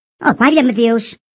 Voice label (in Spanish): voz programa; mercadona